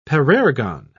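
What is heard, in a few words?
"parergon", British English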